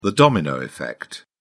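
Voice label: "domino effect", British English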